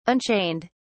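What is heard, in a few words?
"unchained", British English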